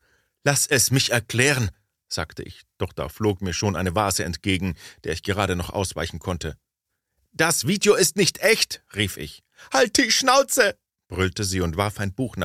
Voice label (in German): Hoerbuch Streitszene